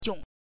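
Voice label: "jiong", Mandarin pinyin, neutral tone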